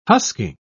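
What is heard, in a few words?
"husking", British English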